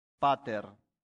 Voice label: "pater", latin; santillana

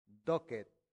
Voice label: "docet", latin; santillana

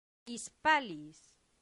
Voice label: "hispalis", latin; santillana

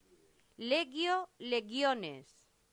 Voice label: "legio legiones", latin; santillana

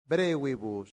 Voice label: "brevibus", latin; santillana

